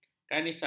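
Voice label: "kanisah", Malay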